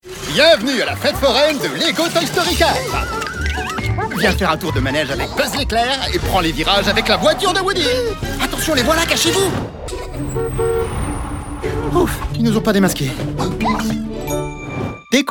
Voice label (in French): H FR PUB; Lego ToyStory 4